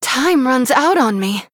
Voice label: Female Human Time